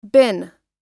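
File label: "been", American English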